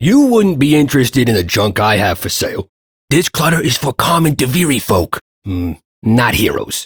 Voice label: Ambient Shopkeeper, Dialog; Duviri